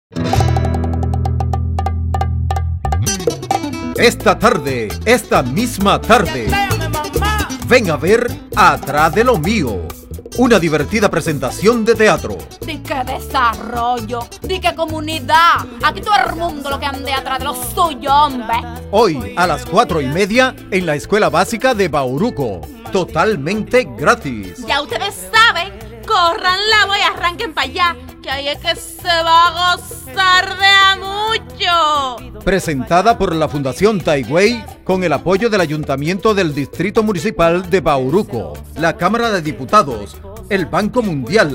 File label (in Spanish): perifoneo; bahoruco